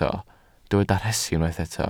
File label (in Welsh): "rhesi", Welsh